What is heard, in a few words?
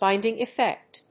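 "Binding Effect", English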